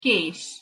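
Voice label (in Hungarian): "kés", Hungarian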